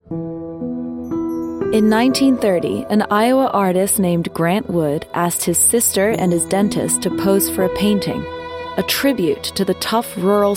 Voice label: Narration